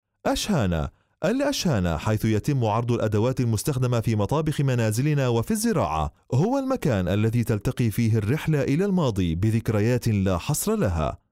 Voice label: arabic